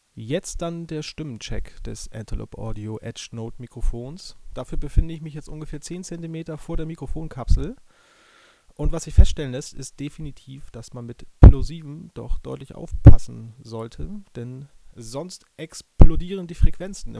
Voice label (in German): ohne popschutz; antelope audio edge note